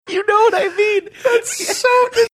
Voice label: saying you know what i mean thats so good high pitched voices